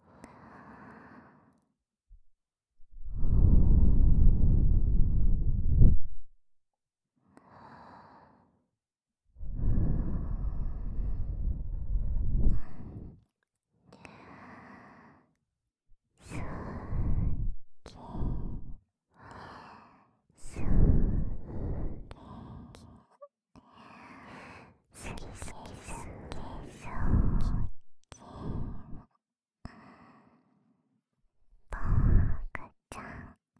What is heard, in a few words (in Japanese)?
リコーダー舐めながら優越感煽られまくりオナサポ; 効果音カット